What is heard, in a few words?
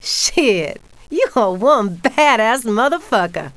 badass, female; kingpin; yolanda